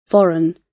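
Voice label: "foreign", British English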